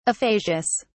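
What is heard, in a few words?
"aphasias", British English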